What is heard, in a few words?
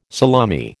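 "salami", American English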